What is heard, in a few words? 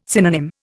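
"synonym", Indian English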